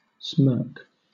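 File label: "smirk", British English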